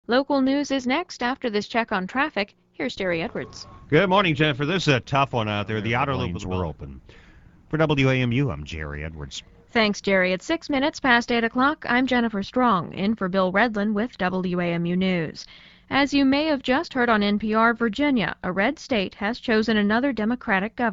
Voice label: election newscast